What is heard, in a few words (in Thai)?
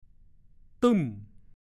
"ตึ้ม", ภาษาโคราช